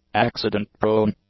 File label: "accident-prone", english; adjectives